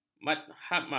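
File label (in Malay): "mahatma", Malay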